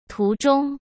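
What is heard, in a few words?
"途中", Mandarin Chinese; chinese flashcards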